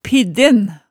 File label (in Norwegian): "pidden", Hemsedal